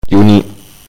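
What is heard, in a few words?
"June", German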